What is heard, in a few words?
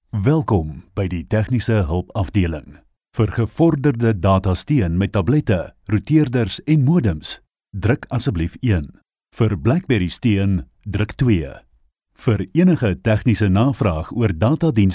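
Afrikaans, male, voice over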